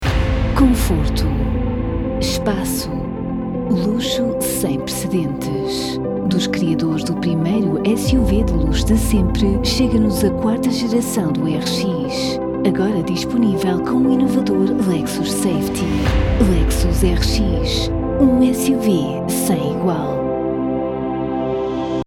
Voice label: Commercial sample